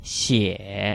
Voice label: "xie3", Chinese